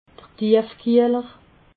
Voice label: "tiefkieller", alsace

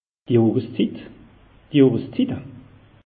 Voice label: "johreszit", alsace; meteo

